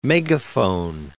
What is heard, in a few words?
"megaphone", English